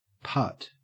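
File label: "putt", Australian English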